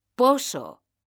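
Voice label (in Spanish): "POSO", Spanish; mekanta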